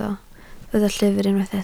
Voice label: "llyfr", Welsh

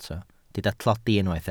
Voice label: "tlodi", Welsh

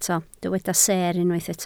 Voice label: "seer", Welsh

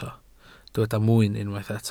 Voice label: "mwyn", Welsh

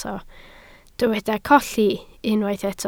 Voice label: "colli", Welsh

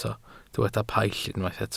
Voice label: "paill", Welsh

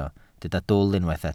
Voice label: "dwl", Welsh

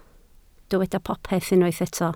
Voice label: "popeth" Welsh

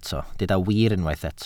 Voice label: "wir" Welsh

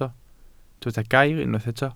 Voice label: "gair", Welsh

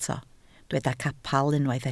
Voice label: "capel" Welsh

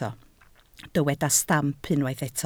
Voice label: "stamp", Welsh

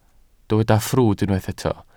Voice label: "ffrwd" Welsh

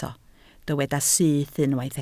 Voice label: "syth", Welsh

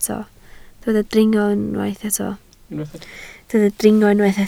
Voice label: "dringo", Welsh

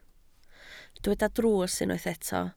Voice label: "drws", Welsh

